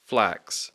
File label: "flax", English; Speech Pro; X (ks sound)